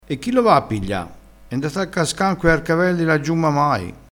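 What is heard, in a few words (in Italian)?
"carcavelli", dialettale crespinese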